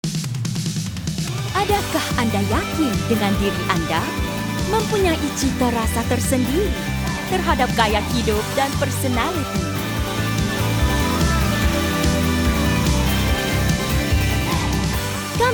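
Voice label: Malay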